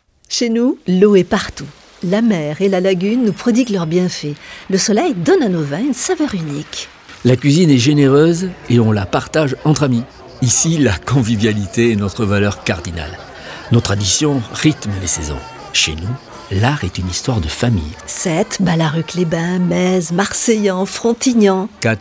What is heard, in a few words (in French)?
spot radio; archipel de thau